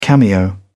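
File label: "cameo", British English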